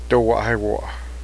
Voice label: team horse, cheyenne